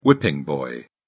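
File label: "whipping boy", British English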